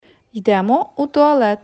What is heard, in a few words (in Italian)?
Ucraino